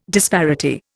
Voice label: "disparity", Indian